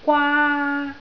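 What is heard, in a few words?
"gwaa1", Cantonese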